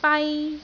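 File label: "bai1", Cantonese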